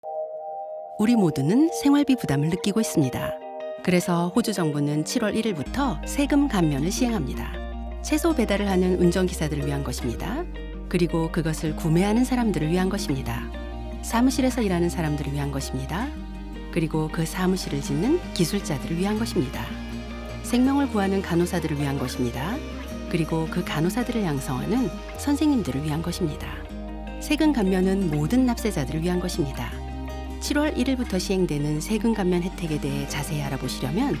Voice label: radio, Korean